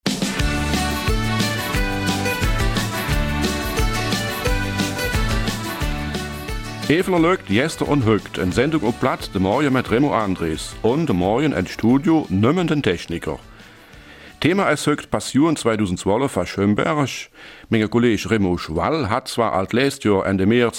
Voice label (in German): Eifeler Mundart; Passionsspiele in Schönberg 2012